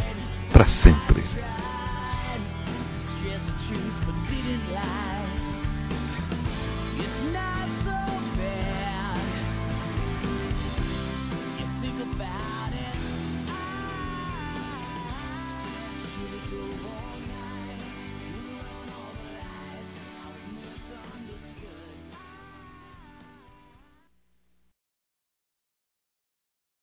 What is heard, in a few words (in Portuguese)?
Reconciliação Saudade Suave Masculino; Telemensagens